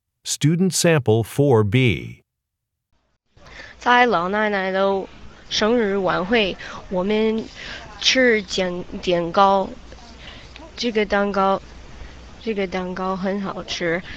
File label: chinese conversation